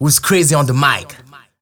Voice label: Vocals